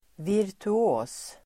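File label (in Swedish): "virtuos", Swedish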